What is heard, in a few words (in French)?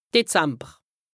"Dezamber", lexique indispensable haut rhin, Alsace; décembre